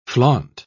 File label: "flaunt", pronounce